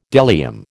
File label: "bdellium", American